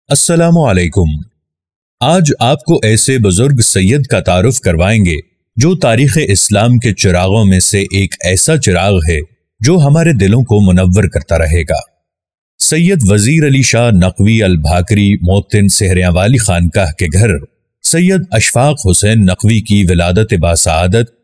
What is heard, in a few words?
Documentary Voice Over